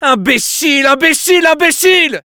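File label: negative vocalization, French; "Imbécile, imbécile, imbécile !"; Scout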